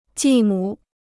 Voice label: "继母", Chinese